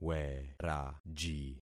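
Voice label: "weraji", concatenated syllables; Affixing experiment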